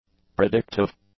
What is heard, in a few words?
"predictive", english; adjectives